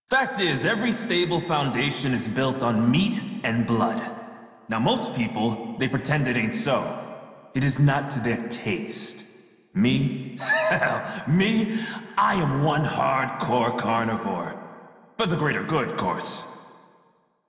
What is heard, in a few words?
Crew Idle Male en; Dialog; Warframe; Railjack Crew; Red Veil